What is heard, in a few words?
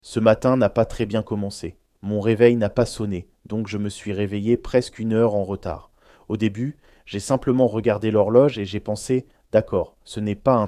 french